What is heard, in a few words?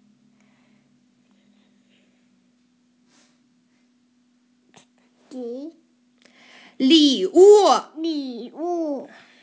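"li3 wu4", Mandarin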